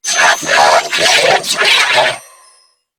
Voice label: Attack Grineer Female; New War; Narmer